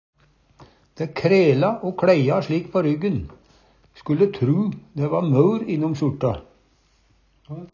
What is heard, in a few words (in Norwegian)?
"krela", Numedalsmål